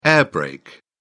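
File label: "air brake", British English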